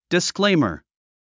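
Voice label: "disclaimer", English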